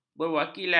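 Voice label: "berwakilkan", Malay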